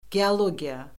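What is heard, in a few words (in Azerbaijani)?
"geologiya", Azerbaijani; Orfoqrafiya Lüğəti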